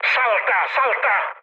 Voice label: bailout, mood med, speech it; War Thunder

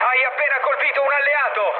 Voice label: player killed ally, mood med, speech it

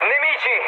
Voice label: enemy detected, mood med, speech it; War Thunder

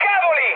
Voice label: trick, mood high, speech it; War Thunder

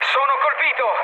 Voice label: aircraft destroyed, mood med, speech it

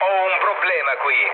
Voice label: aircraft damaged, mood low, speech it; War Thunder

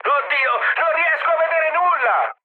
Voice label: searchlite, mood high, speech it; War Thunder

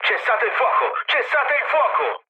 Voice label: player friendly fire, mood low, speech it

